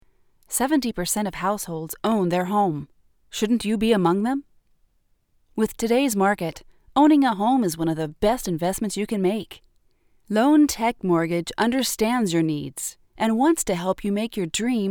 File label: voiceovers